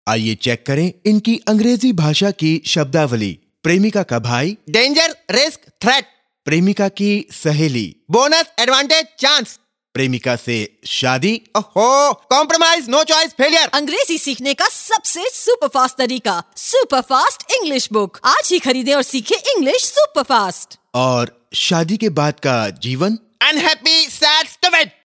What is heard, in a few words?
Super Fast English; Big FM